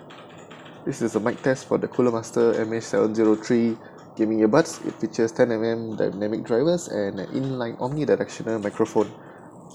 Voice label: mic test; Cooler Master MH703